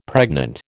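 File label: "pregnant", American English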